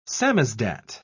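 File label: "samizdat", British English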